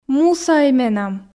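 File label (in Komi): "мусаӧй менам", Komi, female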